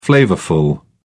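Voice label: "flavourful", British English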